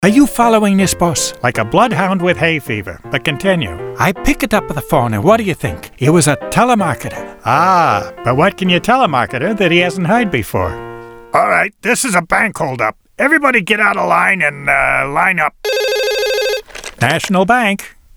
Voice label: Comic Doubles VO